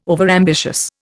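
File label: "overambitious", Indian English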